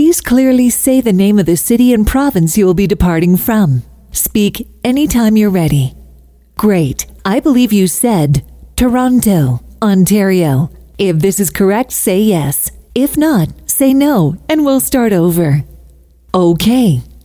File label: US English IVR Airline Reservation